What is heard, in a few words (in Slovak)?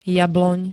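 "jabloň", Slovak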